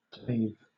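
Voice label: "clave", English, British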